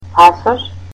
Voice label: "passport", Macedonian